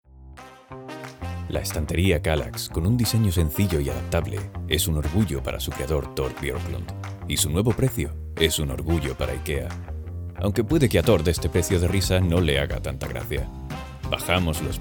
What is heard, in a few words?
Spanish